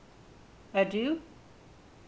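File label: "adieu", Saint Vincent, female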